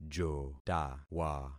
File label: "jotawa", concat syllables; Affixing experiment